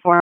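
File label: Raleigh Police North District Tactical; wake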